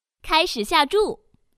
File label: Start, Woman; Baccarat